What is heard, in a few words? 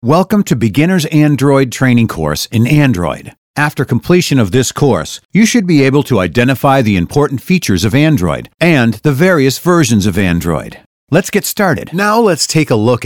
e-learning